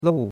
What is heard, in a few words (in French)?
"lou4", chinois; Cours-de-Chinois